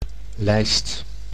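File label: "lijst", Dutch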